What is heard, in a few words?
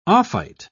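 "ophite", British English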